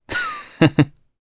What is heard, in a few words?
Happy, jp; kingsraid